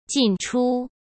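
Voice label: "进出", Mandarin Chinese; chinese-flashcards; hsk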